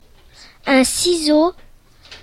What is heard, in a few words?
"schere", French; classroom